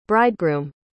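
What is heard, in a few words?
"bridegroom", English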